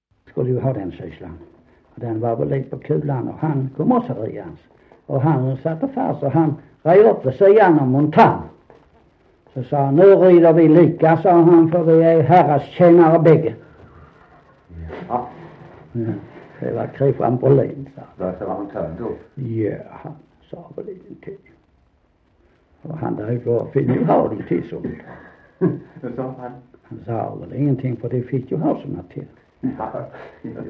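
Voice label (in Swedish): Sankt Olof Skåne, Svenska sagor dialekter; Folkminnen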